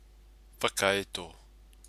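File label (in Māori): "whakaeto", Māori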